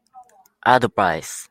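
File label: "advice", Japanese, male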